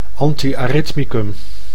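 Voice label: "anti-arrhythmicum", Dutch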